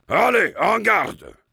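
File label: Heavy melee dare, French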